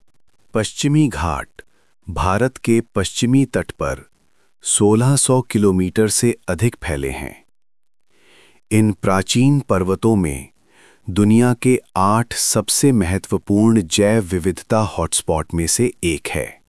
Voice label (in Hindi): documentary, Hindi